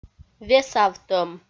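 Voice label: "весавтӧм", Komi-Zyrian, female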